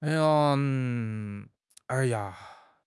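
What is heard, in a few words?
"ai1 ya1", Chinese; 汉语口语新时代-初级下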